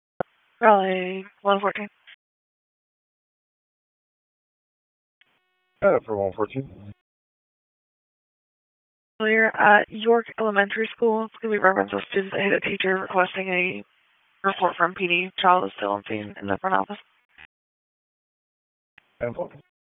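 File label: Police Southwest District Dispatch, p25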